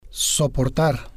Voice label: "soportar", spanish